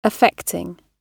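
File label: "affecting", British English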